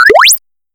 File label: AI Asking